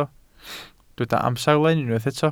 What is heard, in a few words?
"amserlen", Welsh